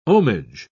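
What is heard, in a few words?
"ohmage", British English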